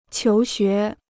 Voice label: "求学", Chinese

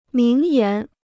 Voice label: "名言", Chinese

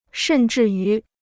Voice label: "甚至于", Chinese

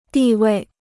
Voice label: "地位", Chinese

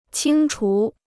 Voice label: "清除", Chinese

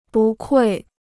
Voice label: "不愧", Chinese

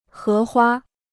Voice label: "荷花", Chinese

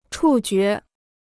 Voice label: "触觉", Chinese

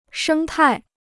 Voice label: "生态", Chinese

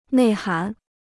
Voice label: "内涵", Chinese

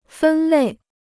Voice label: "分类", Chinese

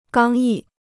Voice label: "刚毅", Chinese

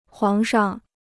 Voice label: "皇上", Chinese

